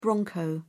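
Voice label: "bronco", British English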